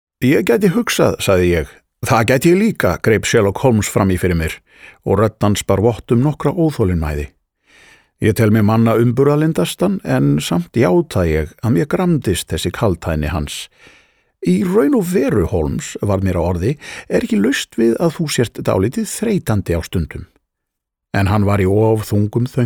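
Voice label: Icelandic audiobook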